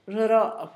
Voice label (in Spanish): grande, Zapoteco, Asunción Ocotlan; Adjetivos; INALI; Haspelmath